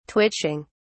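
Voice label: "twitching", English, British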